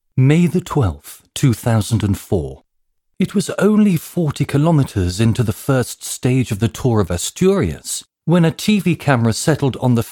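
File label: Corporate Promotion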